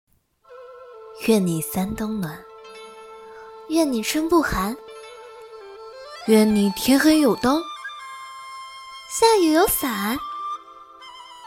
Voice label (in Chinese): 多角色独白